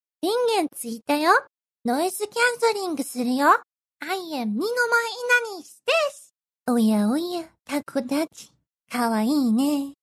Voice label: JPN; Inanis; aviot